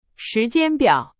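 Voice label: Chinese, example sentence; hsk2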